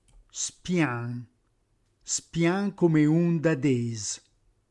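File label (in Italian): "spiân", Reggiano; franco, sincero, molto diretto; reggiane; dialetto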